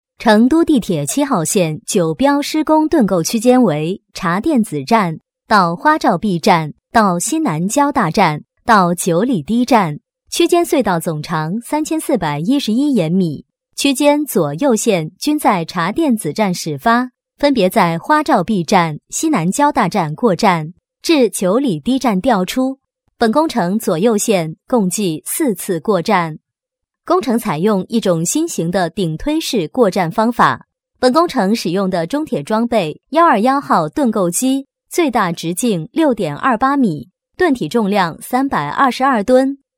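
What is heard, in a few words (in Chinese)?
多媒体 项目规划, 女, 普通话; 工程项目规划地铁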